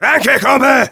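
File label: Demoman thanks, German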